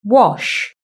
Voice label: "wash", British English

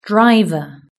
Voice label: "driver", British English

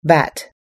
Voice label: "bat", us-english

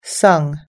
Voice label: "sung", us-english

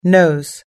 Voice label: "nose", us-english

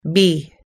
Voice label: "bee", us english

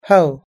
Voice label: "hoe", us-english

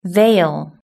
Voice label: "veil", British English

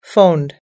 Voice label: "phoned", us-english

